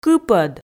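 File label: "кыпӧд", Komi, female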